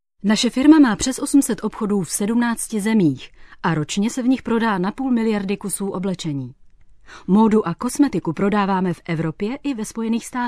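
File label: Czech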